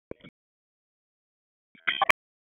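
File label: Police District Tactical, p25; wake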